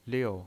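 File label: "liu", pinyin, Mandarin Chinese, fourth tone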